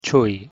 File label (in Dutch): "чой", Selkoeps; thee-in-andere-talen